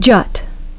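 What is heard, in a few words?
"jut", English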